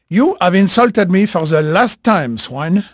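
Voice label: French male, voice; Pirates Of The Caribbean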